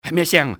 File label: what was noise, cantonese male; XBOX; enemy